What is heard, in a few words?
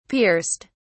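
"pierced", British English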